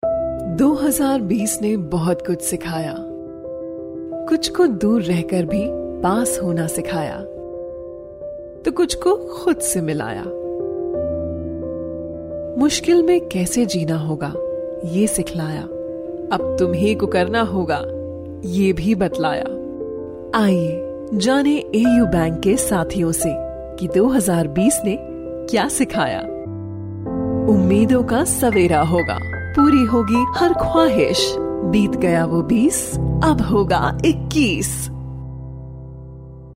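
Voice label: Hindi female Documentary; voice over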